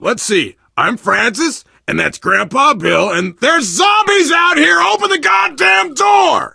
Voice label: world small town npc bellman, player survivor voice biker; source engine; left 4 dead 2